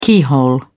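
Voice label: "keyhole", British English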